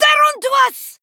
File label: Female Dwarf Attack